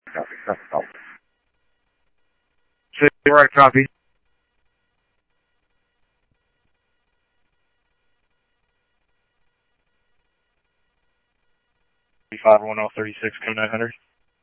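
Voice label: Mesa police department, scanner audio trunk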